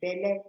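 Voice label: "belle", Nigerian Pidgin, female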